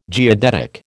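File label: "geodetic", American English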